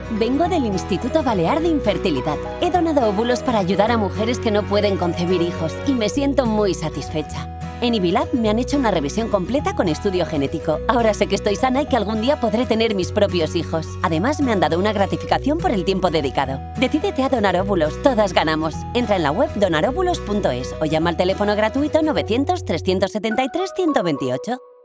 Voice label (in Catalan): Publicitat CAST